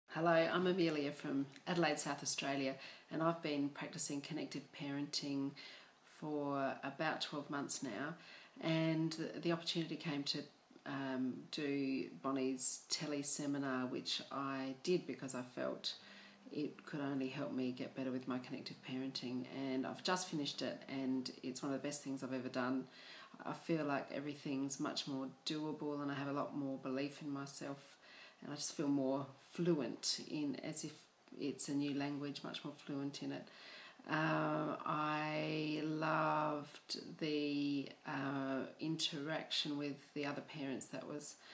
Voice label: testimonial